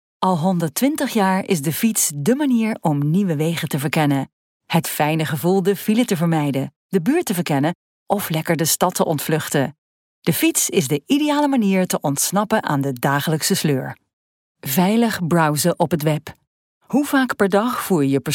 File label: dutch female corporate